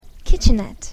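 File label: "kitchenette", English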